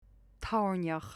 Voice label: "toirneach", Connachtach; Tearmai Aimsire